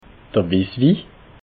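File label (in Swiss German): "wisswi", Alsatian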